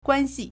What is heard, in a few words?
"guan1xi4", Chinese